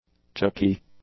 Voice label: "chalky", English; adjectives